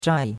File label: "zhai4", chinois; Cours de Chinois